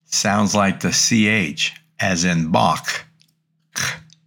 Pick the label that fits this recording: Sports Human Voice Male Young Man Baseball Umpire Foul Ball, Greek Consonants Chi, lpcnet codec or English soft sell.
Greek Consonants Chi